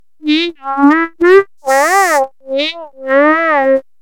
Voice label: mumble